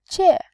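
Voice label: "jie", Mandarin, fourth tone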